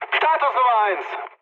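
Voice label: German chat, speech; War Thunder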